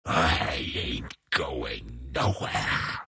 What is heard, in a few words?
troll death; troll warlord